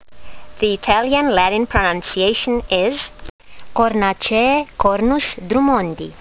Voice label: latin; Cornus drummondii